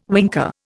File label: "winker", Indian